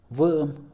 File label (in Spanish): "quedar", Mixe, hombre; Espaciales